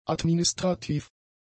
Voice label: "administrativ", german; adjectives